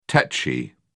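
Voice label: "techy", British English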